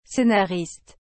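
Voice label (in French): "scénariste", French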